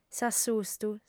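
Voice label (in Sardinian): "s'assustu", female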